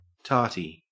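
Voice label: "tarty", Australian English; pronunciation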